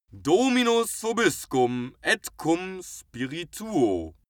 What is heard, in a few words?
male pray latin